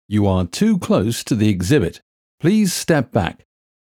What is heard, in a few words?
British Accent You are too close; museum alarm options